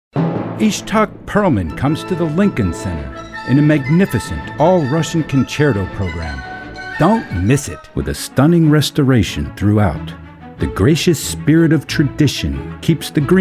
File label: Commercial